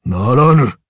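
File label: Vox Deny, Japanese; voices heroes jp; Dakaris; kingsraid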